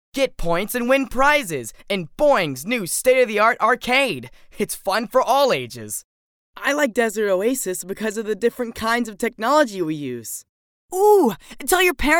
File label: Teen; kid